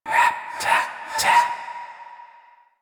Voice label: Intelligible Whisper Rap Tap Tap F; Rell; Warframe; Harrow